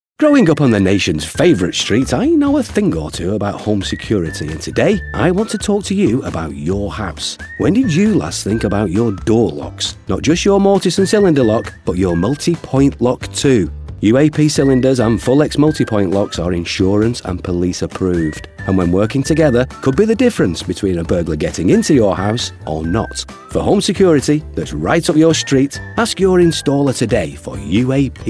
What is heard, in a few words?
Radio Advert; UAP